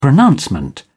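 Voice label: "pronouncement", British English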